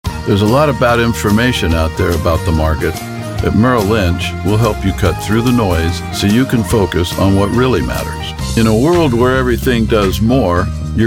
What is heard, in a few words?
Commercial